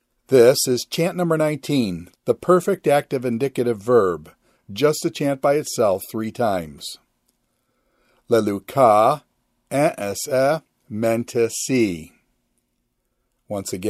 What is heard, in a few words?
Quick Chant Perfect Active Ind; luw